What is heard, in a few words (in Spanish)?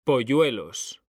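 "POLLUELOS", Spanish; mekanta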